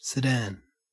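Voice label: "sedan", Australian English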